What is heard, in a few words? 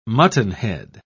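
"muttonhead", British English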